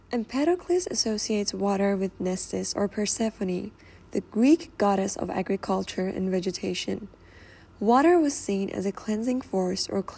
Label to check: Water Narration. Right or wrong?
Right